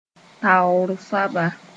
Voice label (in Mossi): "taoor soaba", moore